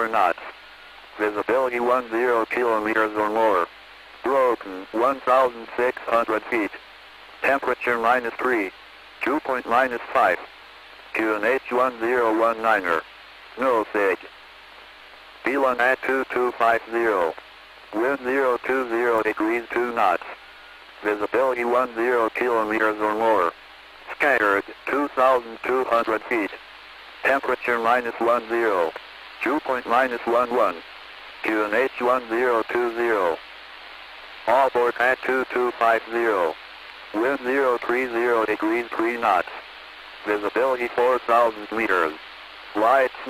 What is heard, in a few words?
Volmet Copenhagen